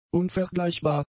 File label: "unvergleichbar", German; adjectives